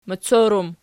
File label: "moccor", Archi